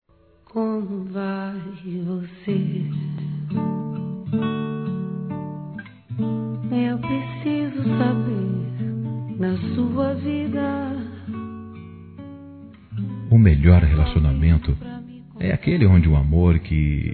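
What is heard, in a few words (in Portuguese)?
ROMANT. AMANTE, Telemensagens, Românticas masculino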